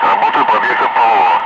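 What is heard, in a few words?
Russian speech, Ka-50 Wingman; Messages engaging sam; DCS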